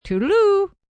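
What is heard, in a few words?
"Toodloo" female; Goodbyes; Greetings And Goodbye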